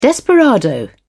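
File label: "desperado", British English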